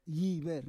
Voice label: "yiver", Roon